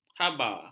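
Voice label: "haba", Malay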